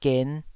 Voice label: "gen5", Taiwanese syllable